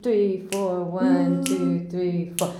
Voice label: COUNT IN, FEMALES; Best Service; Hyper Dance